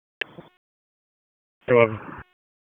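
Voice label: Police Northeast District Disp, radio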